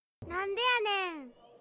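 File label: "nandeyanen", Japanese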